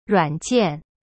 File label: "ruan3jian4", Chinese; cyberchinese